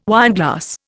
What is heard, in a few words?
"wineglass", Indian